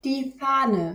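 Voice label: "Fahne", German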